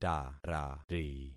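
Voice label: "tarari", concatenated syllables; Affixing experiment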